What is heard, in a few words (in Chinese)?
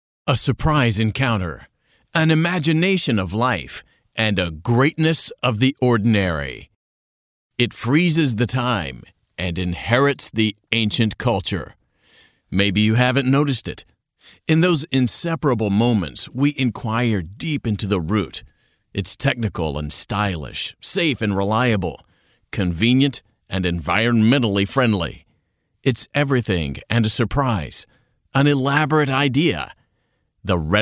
外籍男英 外语 美式英语; 裕同科技